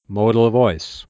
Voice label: modal voice